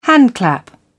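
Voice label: "handclap", British English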